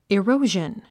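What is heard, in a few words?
"erosion", English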